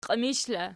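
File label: "qiimish" locative, Archi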